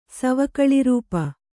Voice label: "ಸವಕಳಿರೂಪ", Kannada

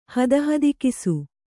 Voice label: "ಹದಹದಿಕಿಸು", Kannada

